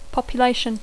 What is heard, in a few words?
"population", English